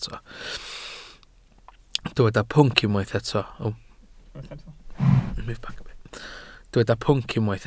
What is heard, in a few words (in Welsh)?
"pwnc", Welsh